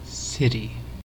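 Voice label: "city", American English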